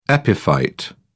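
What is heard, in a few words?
"epiphyte", British English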